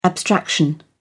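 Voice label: "abstraction", English, British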